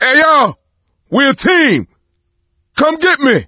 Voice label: call for rescue, voice; Left 4 Dead 2; coach